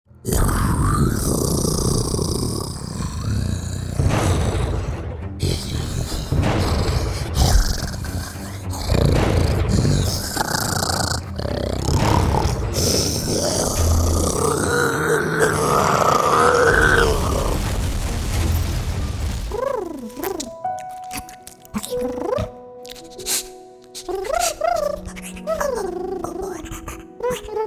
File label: Creature; Videogame